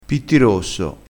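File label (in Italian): "pittirosso", dialetto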